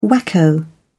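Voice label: "wacko", British English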